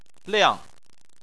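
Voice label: "liang", Chinese, fourth tone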